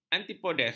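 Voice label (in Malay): "antipodes", Malay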